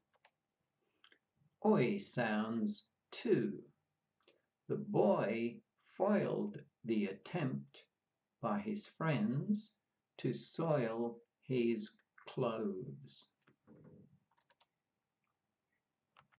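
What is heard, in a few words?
Oi sounds, pronunciation of australian english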